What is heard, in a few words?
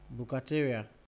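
"bukateria", Nigerian Pidgin, male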